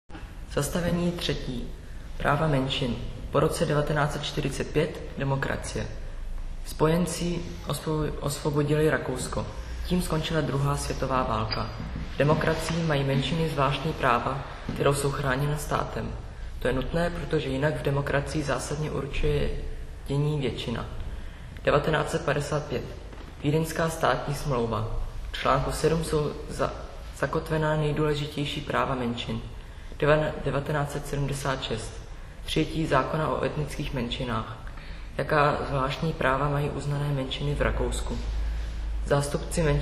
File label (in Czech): cesky